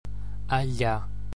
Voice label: slender ll (L'), gaelic